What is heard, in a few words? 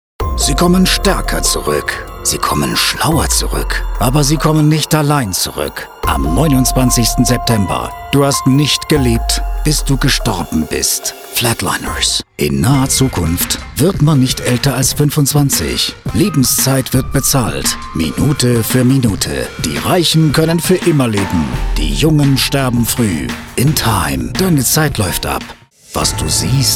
german movie trailers